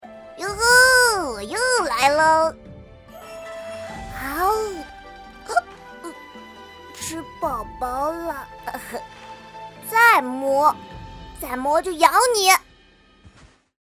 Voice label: 游戏, 可爱男童

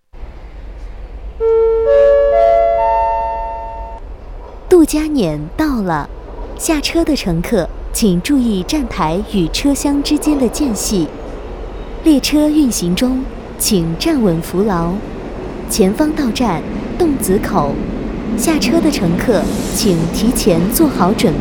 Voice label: 语音播报, 地铁语音播报

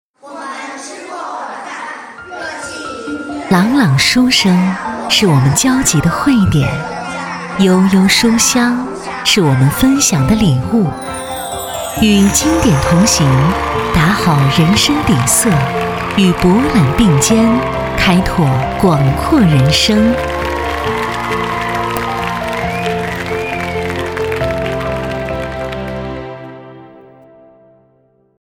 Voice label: 电台; 阳光书屋

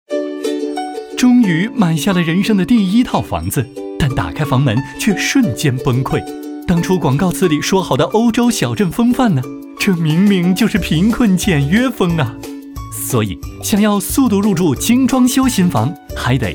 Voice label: 男 广告 年轻时尚亲和 家具; 飞碟说

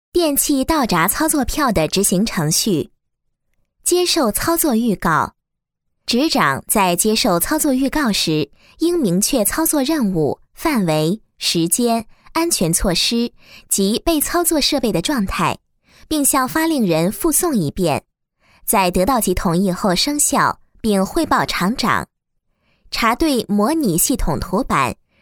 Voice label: 女19 解说 操作 电气 流程, 国语女声配音; 电气倒闸操作票的执行程序

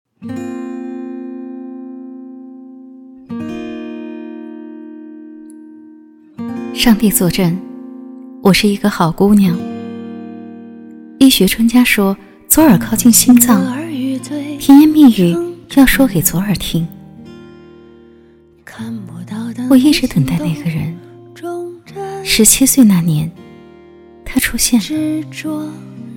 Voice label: 女 微电影 旁白, 优雅; 国语女声配音; 左耳

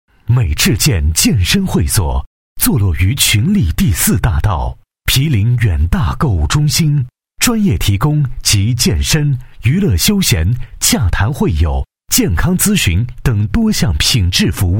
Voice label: 男 专题 大气 稳重 激越, 国语男声配音; 美智健健身会所

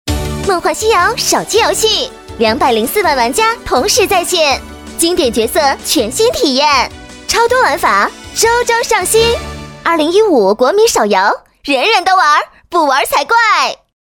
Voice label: 女 广告 手游, 国语女声配音; 梦幻西游

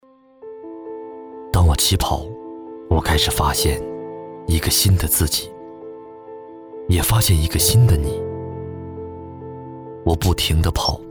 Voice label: 男 广告 深情

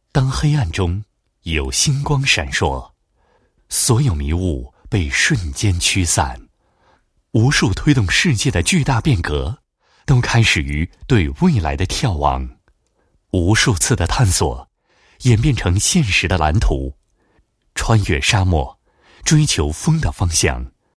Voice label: 男 诗歌类 诗歌广告; 四维图新诗歌广告

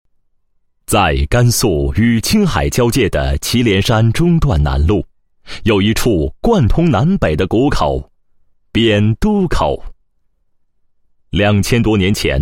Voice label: 男 工作汇报 公路管理局示范工程创建工作汇报, 配音案例

